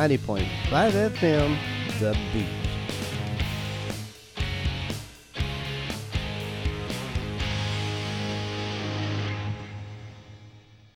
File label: Radio Show Opener